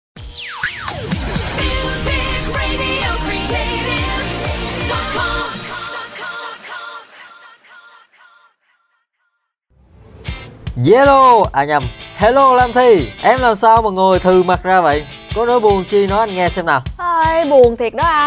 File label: Radio